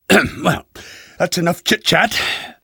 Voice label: launch chit chat; magnusson